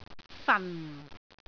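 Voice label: "fan4", Cantonese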